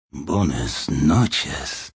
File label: Goodnite, Male